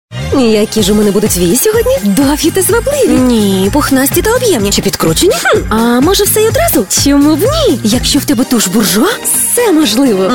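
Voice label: Ukrainian, female, commercial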